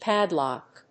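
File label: "padlock", English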